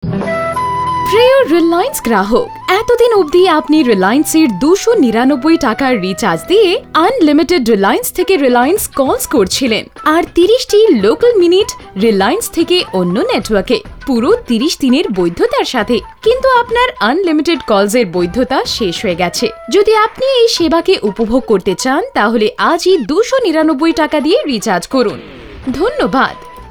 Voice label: Bengali; foreign languages